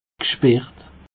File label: "geschperrt", Alsace